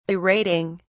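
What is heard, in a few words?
"berating", English, British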